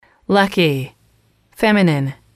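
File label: "lucky", English, female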